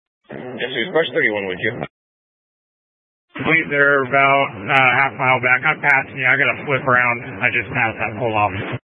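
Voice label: Incident Tactical 1, Scanner audio recording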